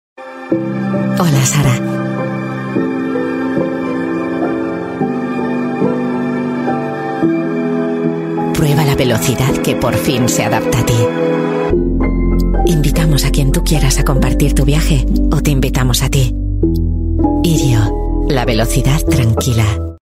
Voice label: Spanish; Tren alta velocidad